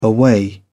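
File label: "away", British English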